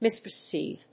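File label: "misperceive", English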